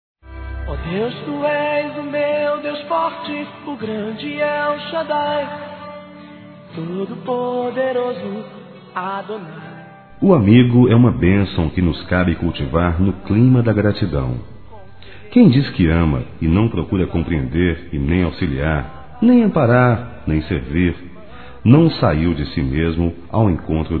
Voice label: Aniversário Amizade Masculino; ANIV. Evangelico

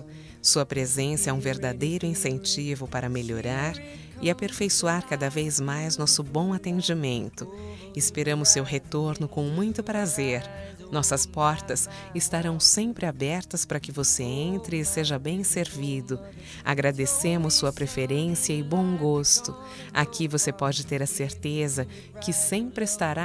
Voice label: Cliente func publico - F, Telemensagens Empresarial; fem; Telemensagens 2017

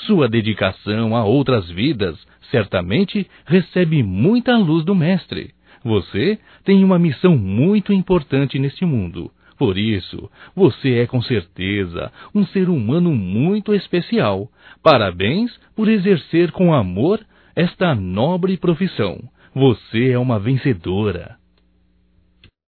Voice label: Dia Médica Masculino, Telemensagens, Só Voz; DATAS COMEMORATIVAS

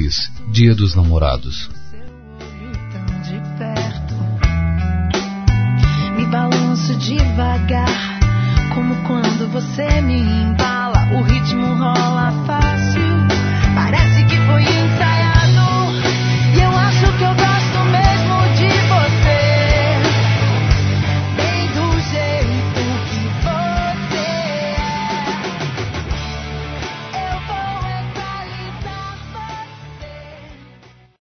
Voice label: Amar É Masculino; Telemensagens; Dia Dos namorados; Telemensagens 2017

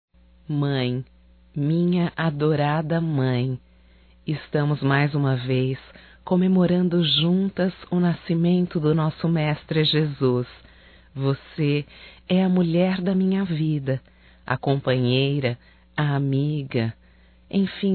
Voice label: NATAL MAE, Telemensagens, fem; Telemensagens 2017; Natal & Ano Novo

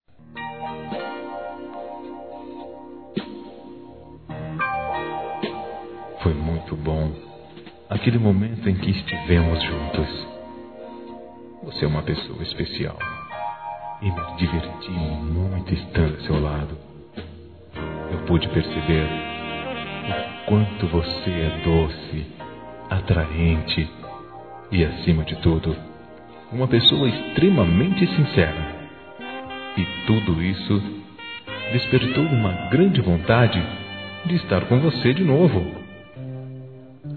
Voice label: MOMENTOS, masc; Telemensagens 2017

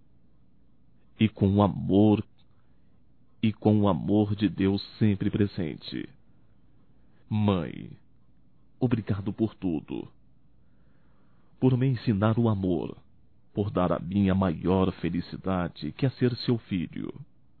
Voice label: NATAL MAE M, masc; Telemensagens 2017; Natal & Ano Novo

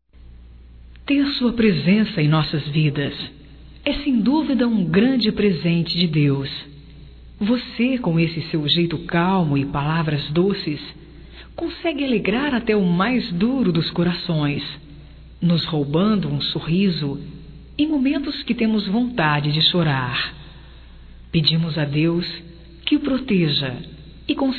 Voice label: PAI NOS, Telemensagens, Aniversarios, fem; Telemensagens 2017

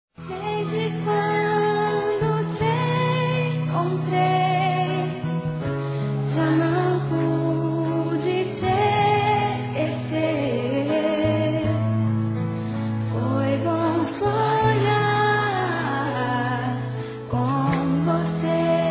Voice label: Pais para aniv. Criança-f, Telemensagens; Aniversário Infantil

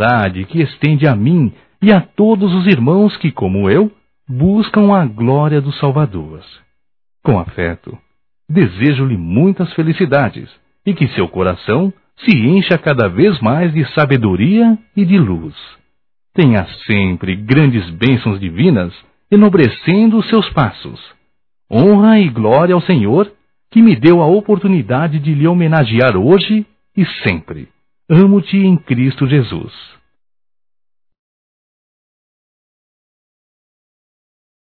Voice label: Homenagem ao Pastor Masculino

